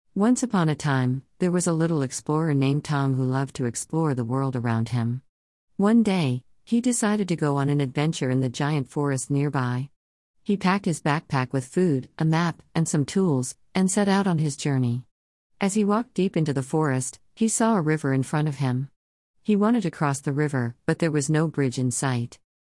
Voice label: narration